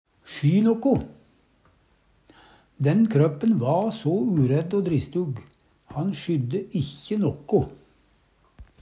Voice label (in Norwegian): sjy noko, Numedalsmål; Uttrykk; Den krøppen va so uredd o dristug, han sjydde ikkje noko.